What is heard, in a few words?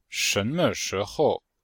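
"shen2me5shi2hou5", Chinese; Cours de Chinois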